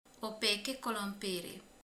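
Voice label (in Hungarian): "sült krumpli", Hungarian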